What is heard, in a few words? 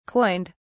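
"coined", British English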